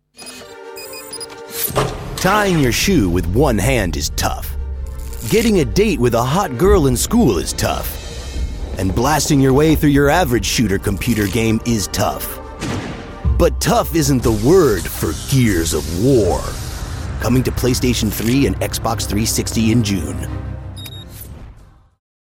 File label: advert; gears of war